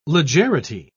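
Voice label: "legerity", British English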